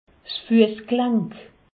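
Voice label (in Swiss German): "fuessglank", Alsatian; medecine; cheville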